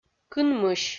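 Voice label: "кынмысь", Komi, female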